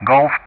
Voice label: "Golf", Speech ENG; Ka-50; Alphabet; DCS

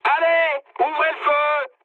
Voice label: attack position mood high, speech fr; War Thunder

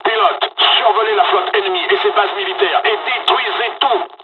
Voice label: japanese first wave, dialogs french; War Thunder

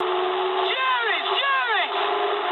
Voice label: eng enemy detected mood high, speech eng

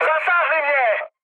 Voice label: aircraft damaged, speech cze, mood high

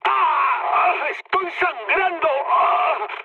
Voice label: damaged pilot, mood high, speech; War Thunder

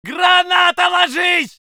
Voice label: voice sov infantry

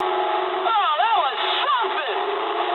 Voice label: eng trick mood low, speech eng; War Thunder

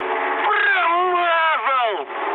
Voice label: bomb failure, mood med, speech ru; War Thunder

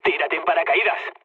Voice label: bailout, mood low, speech; War Thunder

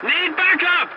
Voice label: voice message cover me, english; War Thunder

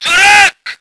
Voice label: voice ger vehicle

